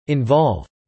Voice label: "involve", English